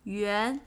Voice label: "Yuan", Chinese